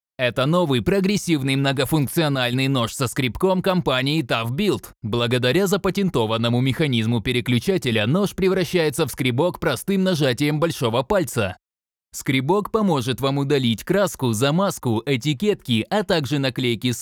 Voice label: russian; toughbuilt